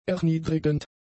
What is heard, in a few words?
"erniedrigend", German; adjectives